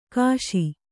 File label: "ಖುಶಿ", Kannada